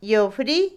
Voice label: aguja, Otomí, mujer; Ropa; INALI

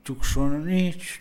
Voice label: "entusiasmarse", Mixe, hombre; Emociones; INALI

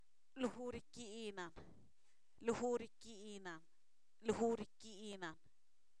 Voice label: haras (tú), Zapoteco, san miguel albarradas; verbos; INALI

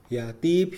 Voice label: "preguntar", Mixe, hombre; Habla

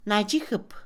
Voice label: llamarse, Mixe, Mujer; Habla; INALI

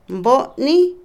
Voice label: ganado, Otomí, mujer; Animales; Vocabulary; INALI

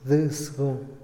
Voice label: un policía, Otomí, hombre; Moderno; INALI

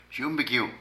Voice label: Casa de hombres, Zapoteco de Petapa; Vocabulary

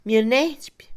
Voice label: llevar, Mixe, Mujer; Movimiento; INALI

